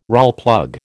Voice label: "Rawlplug", American